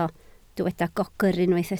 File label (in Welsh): "gogr", Welsh